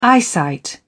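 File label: "eyesight", British English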